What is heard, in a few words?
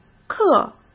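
"克", Mandarin Chinese; chinese flashcards; hsk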